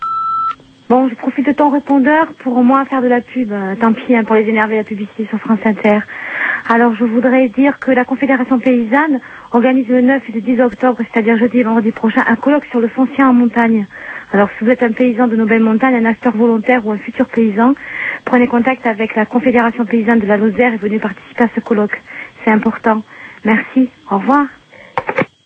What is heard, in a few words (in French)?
Confederation Paysanne repondeur